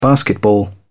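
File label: "basketball", British English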